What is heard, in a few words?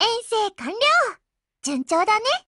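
OPERATION OVER Japanese, Voice; GF Alarm; EVO3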